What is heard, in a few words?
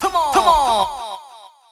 VOICEFX TEKNO 140, Techno, Voice